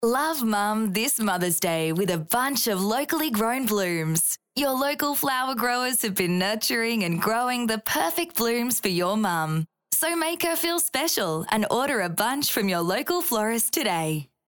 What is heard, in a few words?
Mothers Day Radio advert